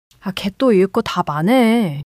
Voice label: anger; monologue

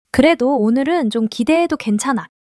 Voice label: excitement; monologue

